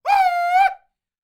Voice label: MEXICO VOICE, MEXICAN; ILIO; Vocal Planet VOL-5

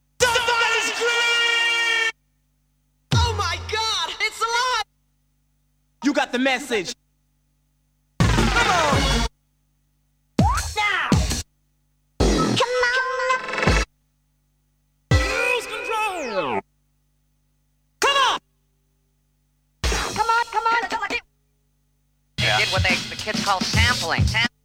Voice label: Vocal Drops; Kniteforce; 1000 Samples Volume 1

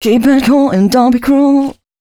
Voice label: female c# 135, short hooks, Dance Voice; Mutekki Media; Dance Ultra Voice VOL-1

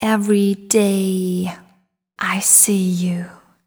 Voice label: fem spo, Vocals

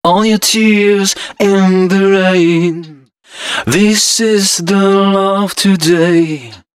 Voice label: male d#130, Dance Ultra Voice hooklines

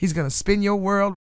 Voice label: DIALOG, FEM SPOKEN; R&B & Dance; ILIO; Vocal Planet

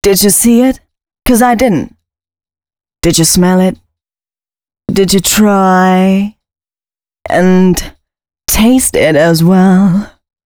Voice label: female spoken hooks, dance ultra voice

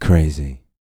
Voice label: bit, Vocal, R&B Dance, hip-hop; TB HIP-HOP

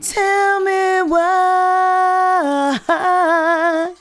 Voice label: TELL ME WHY, FEMALE VOICE; Best Service; Hallelujah

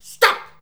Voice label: "stop", shouts; Best Service; Hyper Dance

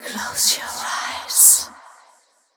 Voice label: fem spo, Vocals

